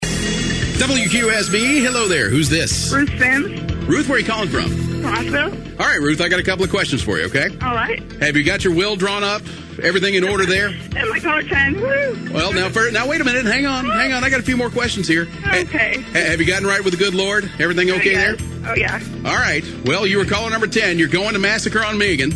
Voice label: radio dj, voice